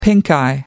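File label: "pinkeye", British English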